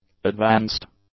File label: "advanced", English; adjectives